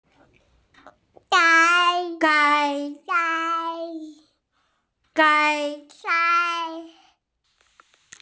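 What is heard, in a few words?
"gai1", Mandarin Chinese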